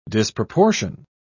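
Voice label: "disproportion", British English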